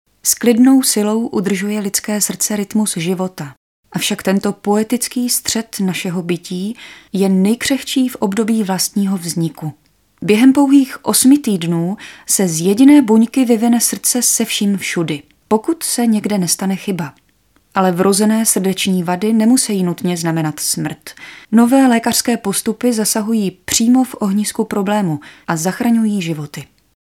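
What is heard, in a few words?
Female Czech documentary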